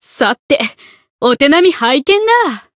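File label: encounter, Japanese; buria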